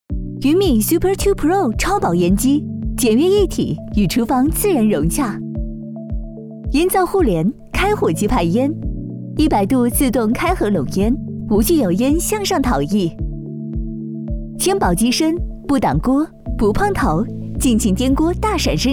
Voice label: 女 家电 超薄烟机; 云米Super-2-Pro

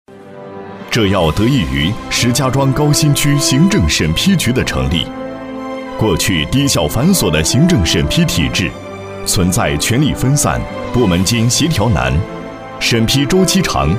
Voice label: 男 国 大音; 党政-行政审批样

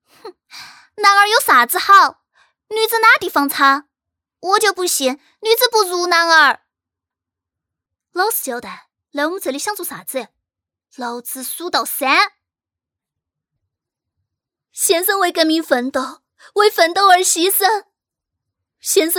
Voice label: 四川方言 女 少女青年中年; 方言配音; 四川话

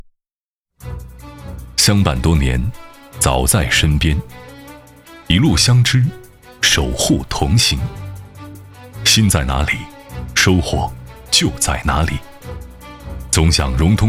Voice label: 男 银行宣传; 银行宣传样音; 贵州银行宣传

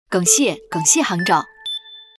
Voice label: 陕西方言 女 陕西话; 方言配音; 恭喜杭州

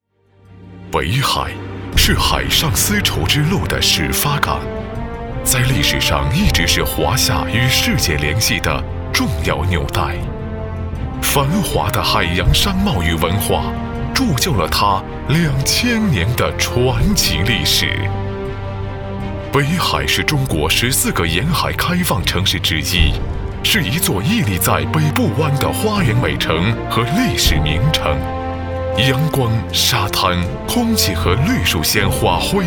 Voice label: 男 国 大音; 政府类; 垫江钟表产业园招商

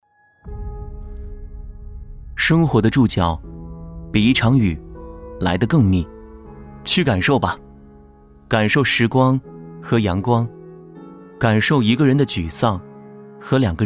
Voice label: 男 素人 走心生活; 素人风格男声

